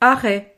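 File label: "arret", French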